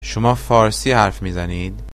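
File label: do you speak, Persian formal; shomâ fârsi harf mizanid?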